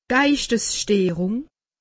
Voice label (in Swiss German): "geistessteerung", alsace; medecine